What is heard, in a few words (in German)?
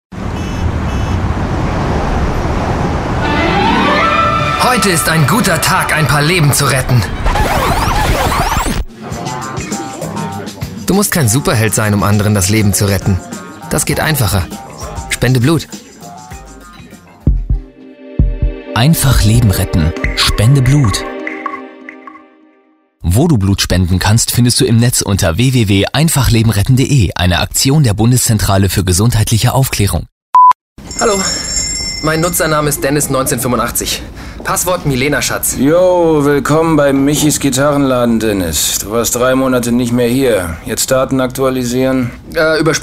Werbung